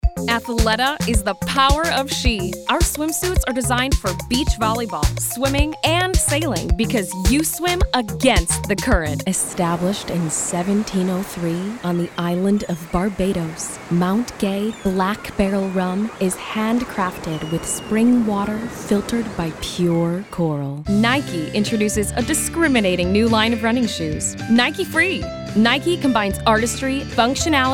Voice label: Commercial Voice Over

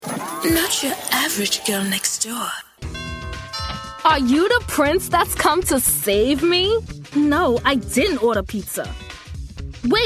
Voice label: Animation

